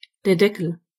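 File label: lid, German voices words; gcompris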